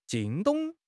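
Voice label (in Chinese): "惊动", Chinese; HSK6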